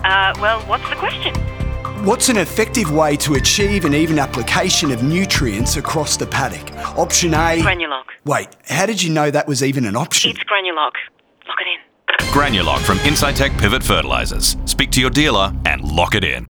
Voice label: radio phone a friend; IPF Granulock 30sec Radio